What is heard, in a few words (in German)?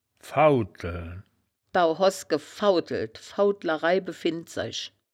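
"faudteln", wittlicher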